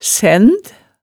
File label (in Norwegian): "sænd", hemsedalsmaalet